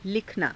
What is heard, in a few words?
"likhanaa", Hindi